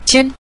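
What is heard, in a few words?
"jian", Mandarin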